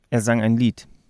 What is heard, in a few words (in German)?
"er sang ein Lied", intonation